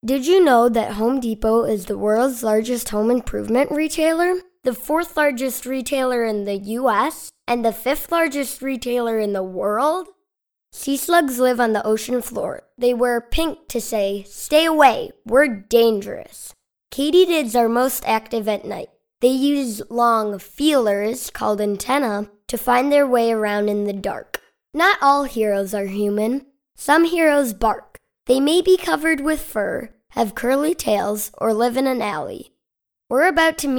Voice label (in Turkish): Amerikan Ingilizcesi Erkek Cocuk, Erkek Ses